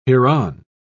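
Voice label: "hereon", British English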